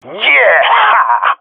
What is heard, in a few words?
cheer, jungle male epic; player vo; Counter Strike Model Pack; CS:GO